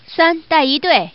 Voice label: Woman